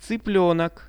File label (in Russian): cyplenok, Russian; цыплёнок; birds; RUSSIAN VISUAL DICTIONARY